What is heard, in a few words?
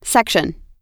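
"section", US English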